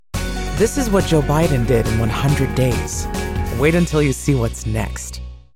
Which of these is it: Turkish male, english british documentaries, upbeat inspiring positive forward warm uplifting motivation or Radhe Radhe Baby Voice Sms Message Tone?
upbeat inspiring positive forward warm uplifting motivation